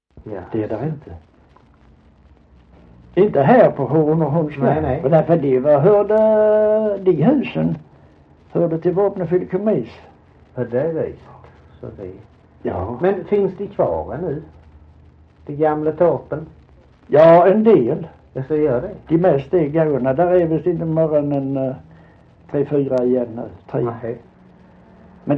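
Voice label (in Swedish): Holm Halland, dialekter; Folkminnen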